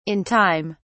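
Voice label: "in time", British English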